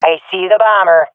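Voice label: sees bomb carrier, swat female; vo; Counter Strike Model Pack; CS:GO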